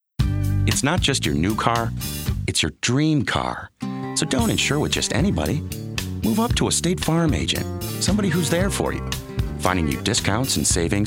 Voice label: commercial